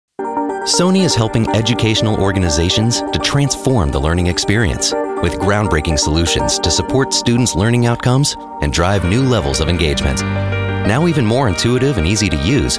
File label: Corporate Narration